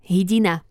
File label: "hydina", slovencina